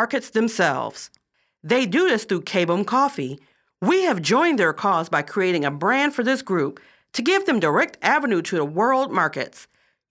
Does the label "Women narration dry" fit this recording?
Yes